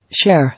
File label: "Share", English